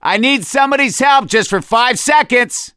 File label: call for rescue, survivor voice; gambler